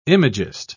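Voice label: "imagist", British English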